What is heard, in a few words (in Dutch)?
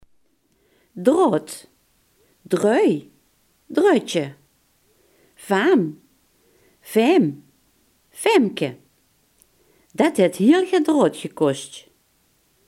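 "draad" Limburgish dialect word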